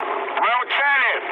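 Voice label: near waypoint, mood low, Russian; War Thunder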